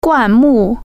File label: "bush", Chinese